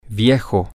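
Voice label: old, Spanish, male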